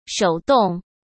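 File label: "手动", Mandarin Chinese; hsk; chinese-flashcards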